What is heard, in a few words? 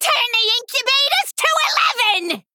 Female Goblin